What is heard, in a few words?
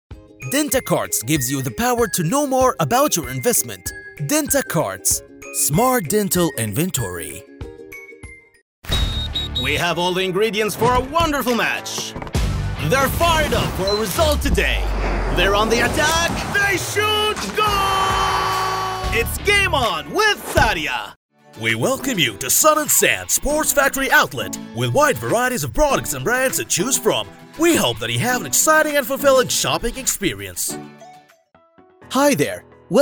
English